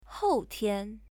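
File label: "houtian", Chinese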